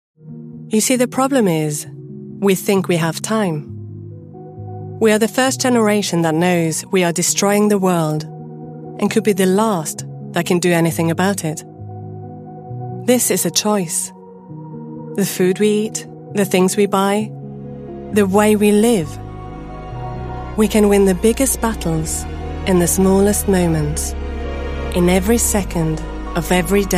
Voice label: English voice

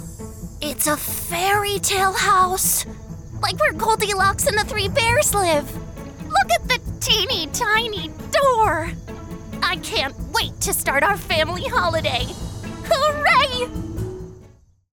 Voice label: Animation

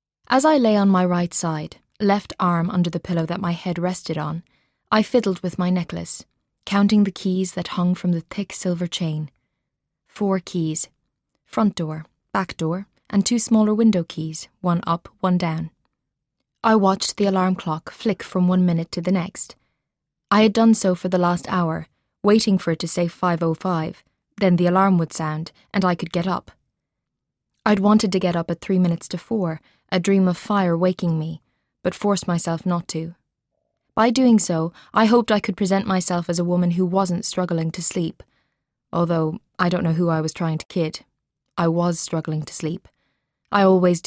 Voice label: Audiobook

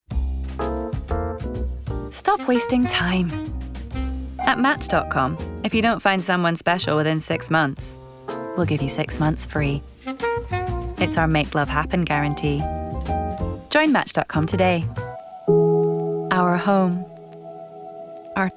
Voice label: Commercials

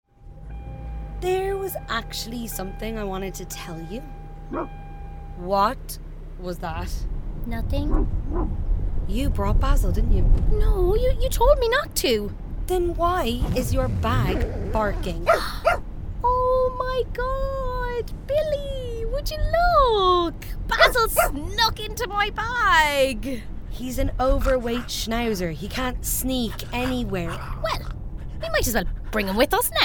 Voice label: Drama

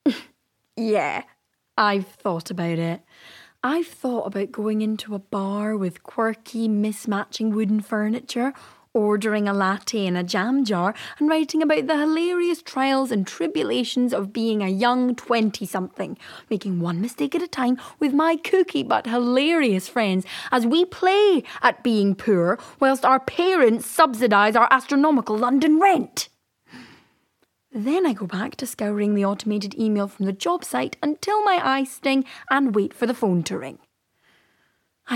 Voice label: Drama

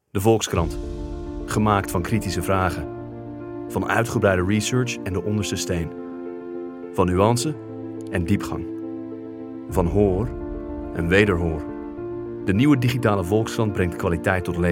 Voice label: Dutch Voice

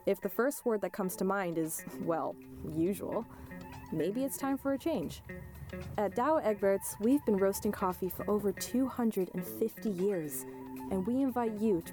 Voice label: English

